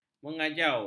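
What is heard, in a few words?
"mengajau", Malay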